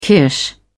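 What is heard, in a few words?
"kirsch", British English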